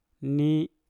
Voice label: "ni" kayu, Mpur

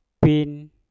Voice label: "pin" maki, Mpur

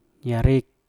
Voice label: "yarep" dahi, Mpur

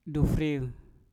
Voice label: "dufriu" mengalir, Mpur

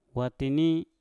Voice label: "watini" singkong, Mpur

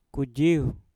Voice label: "kujiuw" ular piton, Mpur

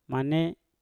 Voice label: "mane" siapa, Mpur

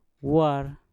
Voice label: "war" sungai, Mpur

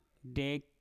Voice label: "dek" sayap, Mpur

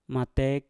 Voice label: "matek" cawat, Mpur

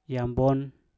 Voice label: "nyabon" leher, Mpur

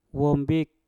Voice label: "wom bik" jari, Mpur

